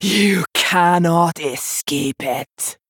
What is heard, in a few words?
Female Dwarf Trigger